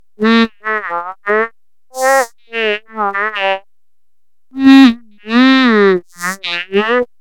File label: mumble